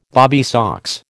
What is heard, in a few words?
"bobby socks", American